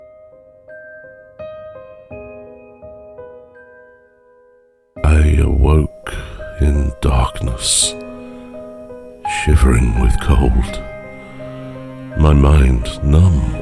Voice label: audiobooks